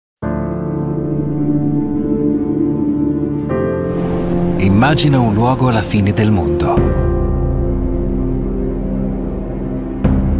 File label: a powerful impressive bass voiceover, italian